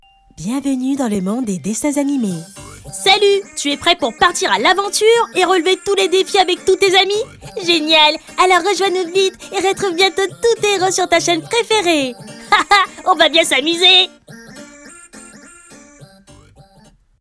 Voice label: TV ENFANTS medium adulte accueillant aigu petit garçon ton tonique espiègle petite fille

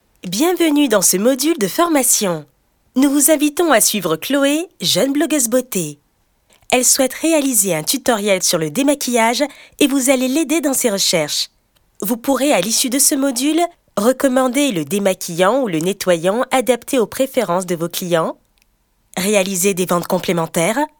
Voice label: e elearning voix accueillante explicative démonstrative souriante; ELEARNIS

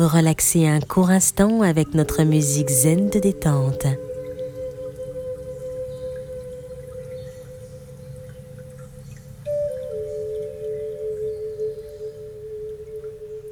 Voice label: BIEN-ETRE ET RELAXATION services téléphoniques posé médium femme captivante rassurante; détente

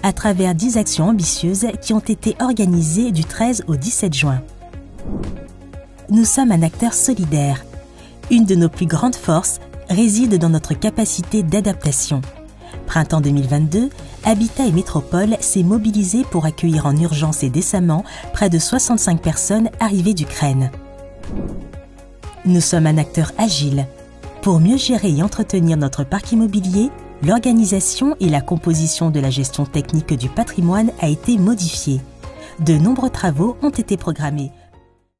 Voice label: Animation graphique Ton explicatif engage fier, voix off; HABITAT ET METROPOLE

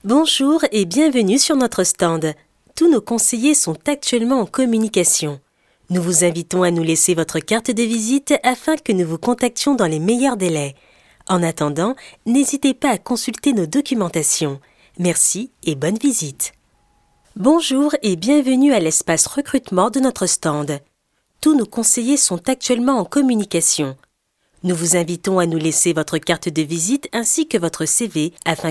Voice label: ACCUEIL TELEPHONIQUE Accueillant souriant rassurant medium; ESPACE STAND ET RECRUTEMENT

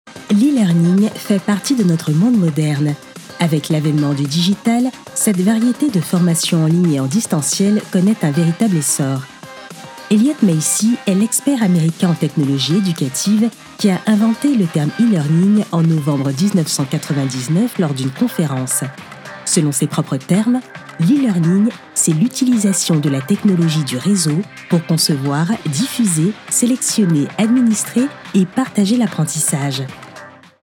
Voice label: Bande annonce Explicatif informatif dynamique, voix off; le learning la formation

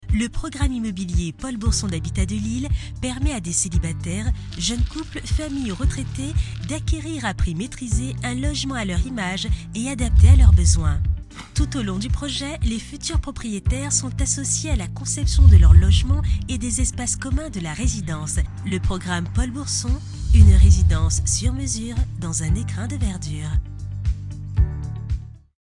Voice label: immobilier Film institutionnel posé explicatif descriptif chaleureux; HABITAT DE L ILL

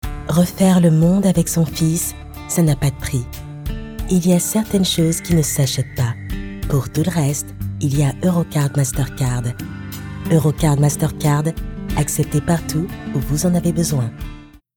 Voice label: Publicité rassurant promo légèrement classe; EUROCARD MASTERCARD

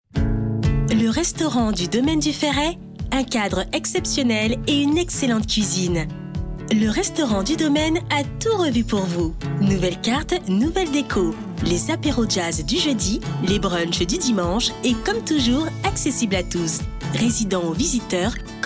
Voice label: Accueillant élégant souriant spot radio; RESTAURANT DU DOMAINE DU FERRET; Diffusion par Radio Cap Ferr

